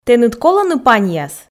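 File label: "тэныд колӧны паньяс", female, Komi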